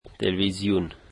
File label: "television", Dari